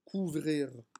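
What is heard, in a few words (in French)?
"couvrir", French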